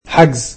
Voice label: "reservation", egyptian